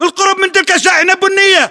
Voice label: landmark near truck brown, battle chatter, english